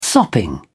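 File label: "sopping", British English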